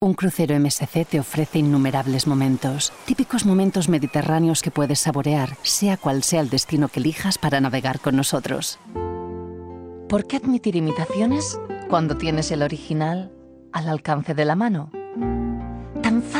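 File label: Spanish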